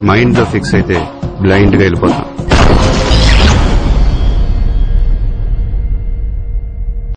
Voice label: Dialogue Telugu Ringtone

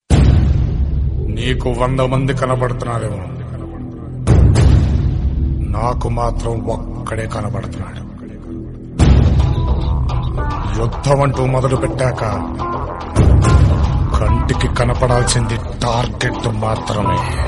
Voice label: Mass Dialogue Telugu Ringtone; Oosaravelli; NTR

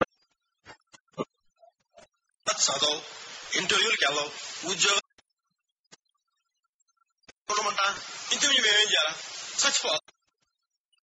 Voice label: Dialogue Telugu Ringtone; Jalsa

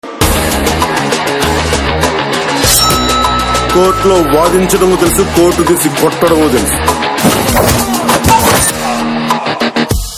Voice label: Dialogue Telugu Ringtone; Vakeel Saab

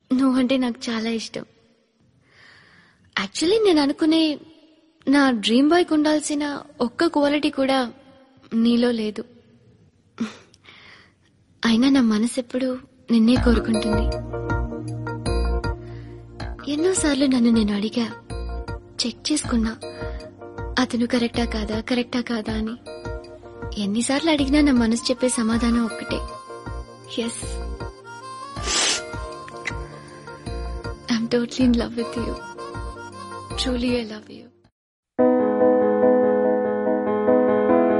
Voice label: Love Dialogue Telugu Ringtone; Pokiri; Ileana

